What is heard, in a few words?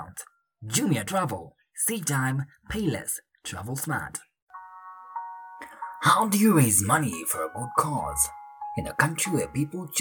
voice over samples